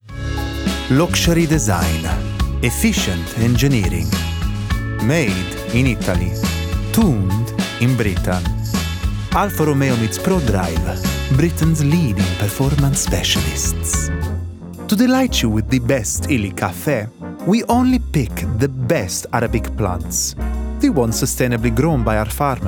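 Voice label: Commercial (Italian Accent), Conversational, Bright, Upbeat, Natural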